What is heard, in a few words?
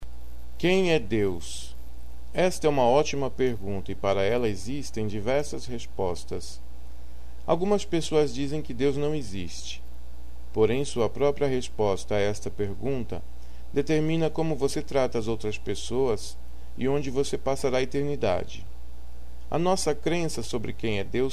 portuguese; who is god; servants of god